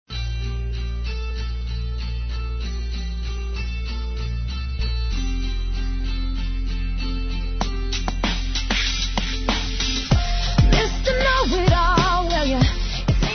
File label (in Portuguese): Despedida ( Quem Fica ) Feminino; Telemensagens; Despedida Boas Vindas; Telemensagens 2017